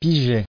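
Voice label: "piger", French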